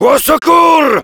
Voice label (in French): help me, fr; "Au secours !"; Heavy